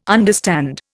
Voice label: "understand", Indian

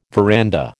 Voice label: "veranda", American

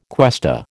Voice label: "cuesta", American

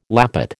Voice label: "lappet", American

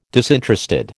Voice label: "disinterested", American

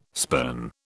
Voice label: "spurn", British

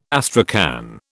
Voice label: "astrakhan", British

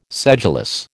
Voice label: "sedulous", American

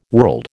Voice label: "world", American

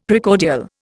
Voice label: "Precordial", Indian; Dictionary

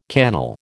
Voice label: "cannel", American

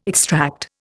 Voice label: "extract", Indian

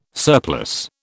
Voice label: "surplus", British

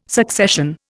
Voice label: "succession", Indian

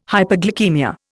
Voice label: "hyperglycaemia", Indian

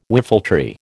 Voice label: "whiffletree", American

